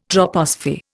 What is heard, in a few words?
"troposphere", Indian